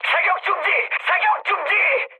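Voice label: Korean player friendly fire mood high; speech; War Thunder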